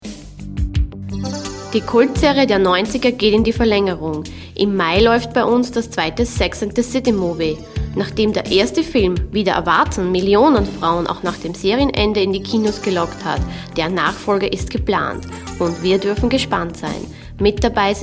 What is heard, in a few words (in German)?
Plaudern